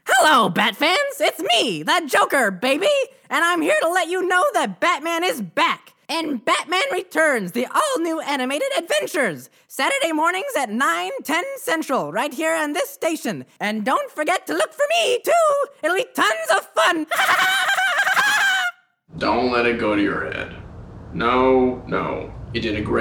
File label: Character Voice